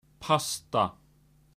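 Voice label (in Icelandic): "pasta", Icelandic; matarhefti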